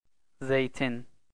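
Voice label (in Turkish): "zeytin", Turkish, male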